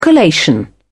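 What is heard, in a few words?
"collation", British English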